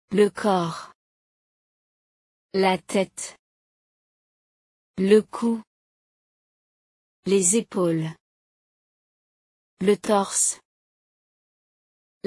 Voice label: body description french pronunciation